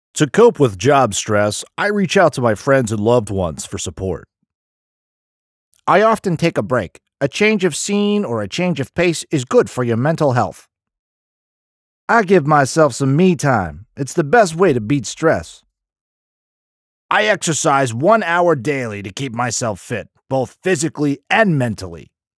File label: Male; NIIT; Global Mental Health